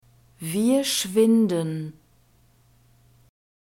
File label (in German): "wir schwinden", German; verbs present tense irregular